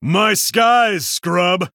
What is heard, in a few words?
Male Human Attack